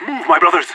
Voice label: radio lets go, vo agents; leet

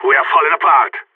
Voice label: scared emote; Counter Strike; CS:GO; phoenix

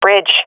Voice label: loc bridge, swat fem; vo; Counter Strike Model Pack; CS:GO

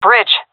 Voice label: loc bridge, seal fem; vo; Counter Strike Model Pack; CS:GO

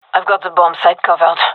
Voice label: guarding bombsite, gendermerie fem; vo

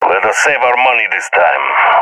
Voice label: request eco, balkan; balkan epic

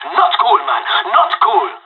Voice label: killed friend, pirate; Counter Strike Model Pack; CS:GO

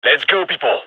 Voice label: radio lets go; gign

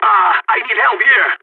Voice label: help, balkan; vo

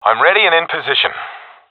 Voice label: in position, professional epic; vo; Source Model Packs; Counter Strike Model Pack; CS2

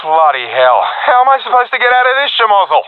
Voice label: scared, professional epic; vo; Source Model Packs; Counter Strike Model Pack; CS2

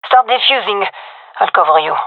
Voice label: cover defuse, gendermerie fem; vo

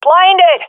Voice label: blinded, swat fem; vo; Counter Strike Model Pack; CS:GO

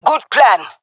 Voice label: agree, jungle fem epic; agents

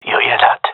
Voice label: heard sound, gendarmerie male; vo

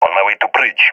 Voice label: omw bridge, balkan; vo; balkan epic

